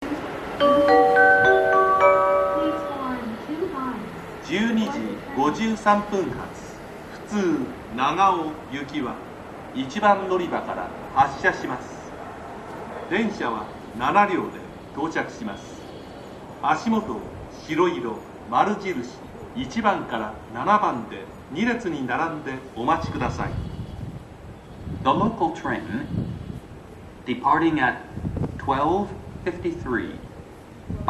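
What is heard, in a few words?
Sumakaihinkoen advance notice; 次列車予告放送; 過去の音声（接近メロディー変更前）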